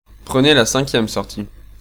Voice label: French male